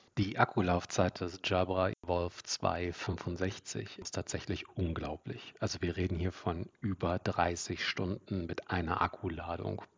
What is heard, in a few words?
Jabra Evolve2 65 mic test